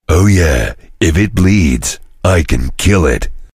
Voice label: chitchat; svencoop; voice commands; Duke